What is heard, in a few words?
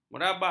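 "merabak", Malay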